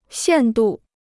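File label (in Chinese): "限度" Chinese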